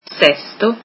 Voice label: italian sixth